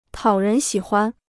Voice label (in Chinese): "讨人喜欢", Chinese